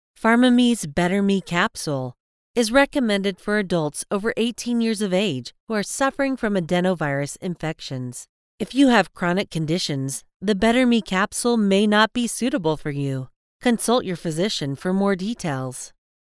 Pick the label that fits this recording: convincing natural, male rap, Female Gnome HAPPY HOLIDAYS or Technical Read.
Technical Read